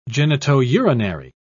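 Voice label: "genitourinary", British English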